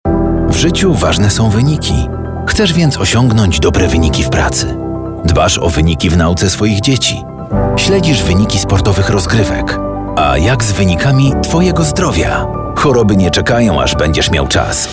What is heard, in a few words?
RADIO; Profilaktyka 40 PLUS